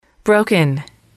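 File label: "broken", english, female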